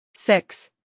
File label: "six", English